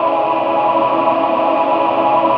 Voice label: VOX, VOCODER; VOCODER 1